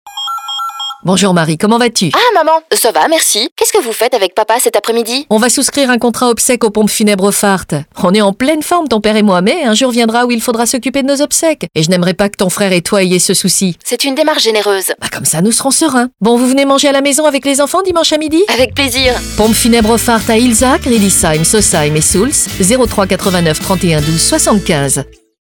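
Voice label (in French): spot, spots radio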